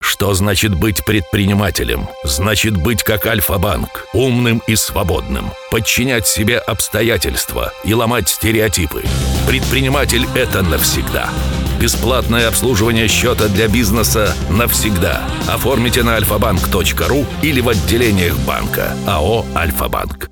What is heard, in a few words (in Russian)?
radio; Альфа